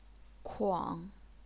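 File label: "kuang", Chinese, third tone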